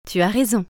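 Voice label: "tu as raison", French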